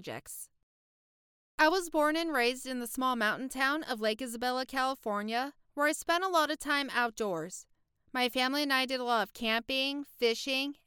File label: Introduction Voice Sample, Voice Actress; American voice actress